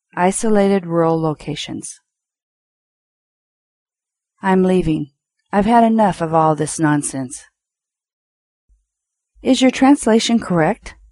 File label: English Sentences